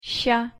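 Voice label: "щ", Russian pronunciation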